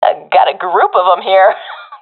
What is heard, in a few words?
sees multiple enemies, professional fem; vo; Counter Strike Model Pack; CS:GO